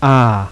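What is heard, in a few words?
unrounded open front a; lausanne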